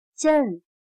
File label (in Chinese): "阵", chino